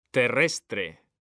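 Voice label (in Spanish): "terrestre", Spanish; mekanta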